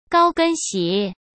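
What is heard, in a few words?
"高跟鞋", Mandarin Chinese; chinese flashcards; hsk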